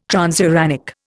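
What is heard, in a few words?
"transuranic", Indian English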